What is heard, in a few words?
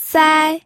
"sai1", pinyin